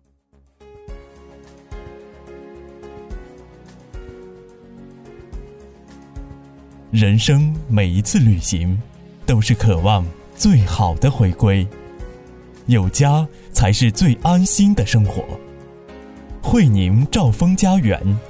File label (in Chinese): 男, 专题, 居住区, 成熟, 稳重; 会宁居住区